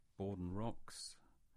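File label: "Bawden Rocks", English; place-names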